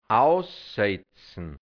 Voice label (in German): "ausse(i)tzn", hianzisch, Dialekt, Burgenland; aussetzen (Pflanzen); kritisieren; beanstanden